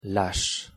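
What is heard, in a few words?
"lache" French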